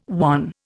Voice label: "wan", Indian

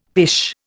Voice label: "pish", Indian; Dictionary

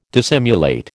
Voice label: "dissimulate", American

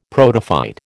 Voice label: "protophyte", American

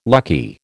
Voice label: "lucky", American

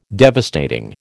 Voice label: "devastating", American

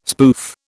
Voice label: "spoof", British

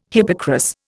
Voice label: "hippocras", Indian

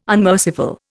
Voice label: "unmerciful", Indian

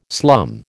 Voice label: "slum", American

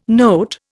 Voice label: "note", Indian

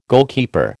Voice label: "goalkeeper", American

